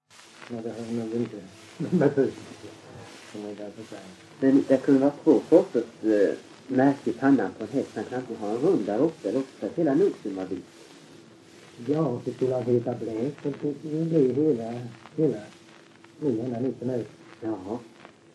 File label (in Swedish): Öljehult, dialekter